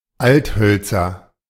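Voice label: German "Althölzer"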